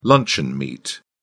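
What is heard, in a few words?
"luncheon meat", British English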